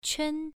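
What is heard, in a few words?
"quan", tone 1, pronunciation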